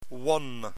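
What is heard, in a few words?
"won", Dutch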